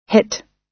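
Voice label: "hit", US English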